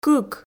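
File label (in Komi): "кык", Komi, female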